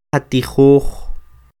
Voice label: Qatijoj, Quiché; ¡Enseñémoslo!; Let's teach him(it)!

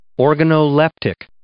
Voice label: "organoleptic", English

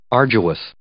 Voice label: "arduous", English

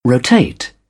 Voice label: "rotate", British English; oʊ - əʊ

